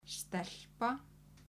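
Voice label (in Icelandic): "stelpa", Icelandic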